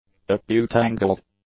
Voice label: "acute-angled", English; adjectives